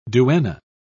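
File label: "duenna", British English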